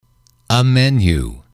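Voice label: "a menu", English, male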